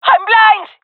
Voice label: blinded, jungle fem; vo; agents; Source Model Packs; Counter Strike Model Pack; CS2; jungle fem epic

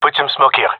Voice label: request smoke, male; vo

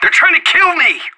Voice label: radio taking fire; anarchist

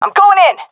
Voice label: attacking, seal fem; vo; agents; Source Model Packs; Counter Strike Model Pack; CS2

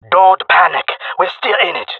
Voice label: round losing, male; player vo; Counter Strike Model Pack; CS:GO

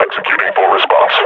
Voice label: under attack, combine grunt; Half Life Model Pack

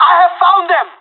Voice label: radio taking fire; Counter Strike Model Pack; CS:GO

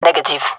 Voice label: negative, gendermerie fem; vo

